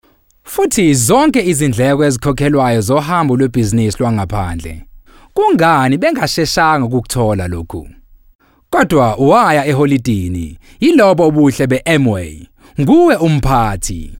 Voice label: Zulu Male